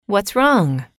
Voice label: "what's wrong", English

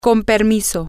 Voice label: get by, spanish, F

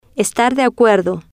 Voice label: "estar de acuerdo", spanish

